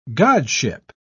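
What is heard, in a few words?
"godship", British English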